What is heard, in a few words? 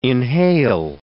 "inhale", English